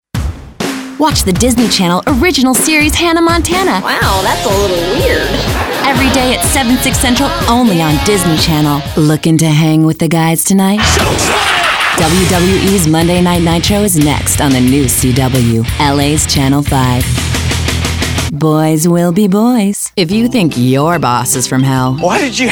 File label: Promo, women